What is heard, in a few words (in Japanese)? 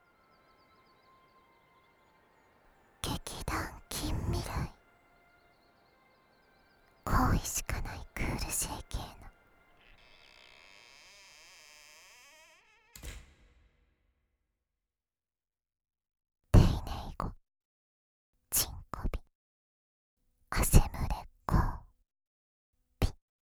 タイトルコール; 好意しかないクールJKの丁寧語チン媚び汗ムレ交尾♪「恋人ベロチューえっち…ええ、しましょう」; 甘オホ